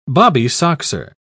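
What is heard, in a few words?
"bobbysoxer", British English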